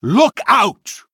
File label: Male Human Attack; en